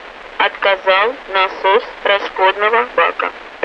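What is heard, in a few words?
Russian speech, Mi-8MT RI65; pump the feeder fuel tank failure; DCS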